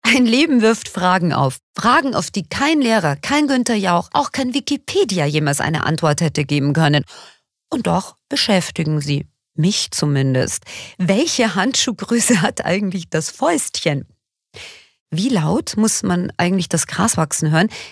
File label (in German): Schauspiel Dynamisch